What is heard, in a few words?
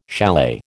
"chalet", American